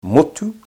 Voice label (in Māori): "motu", te reo